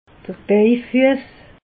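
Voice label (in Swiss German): "bejfuess", Alsatian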